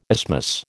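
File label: "isthmus", American English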